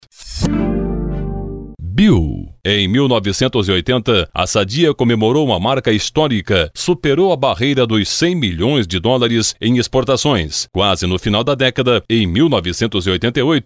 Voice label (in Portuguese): LOCUTOR, vozes masculina